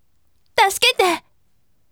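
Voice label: voice, bright; tasukete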